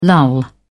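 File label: "lull", British English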